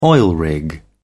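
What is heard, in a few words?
"oil rig", British English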